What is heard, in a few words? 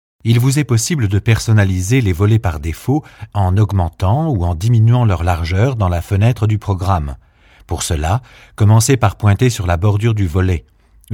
fr informative